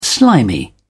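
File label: "slimy", British English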